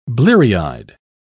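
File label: "bleary-eyed", British English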